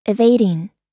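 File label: "evading", American English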